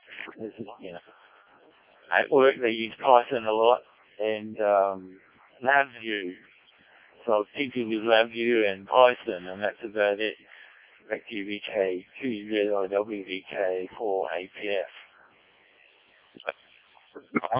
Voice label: decoded speech, 7177KHz